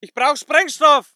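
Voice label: voice german infantry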